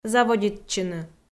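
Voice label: "заводитчыны", Komi-Zyrian, female; audio dict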